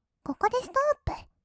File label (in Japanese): 「ここでストップ」, kawaii voice; 1200 kawaii voice!!; 色々なワンショット